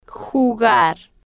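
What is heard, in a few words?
"jugar", Spanish, female, slow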